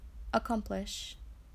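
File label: "accomplish", Australian English, female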